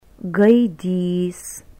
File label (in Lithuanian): "gaidys", lithuanian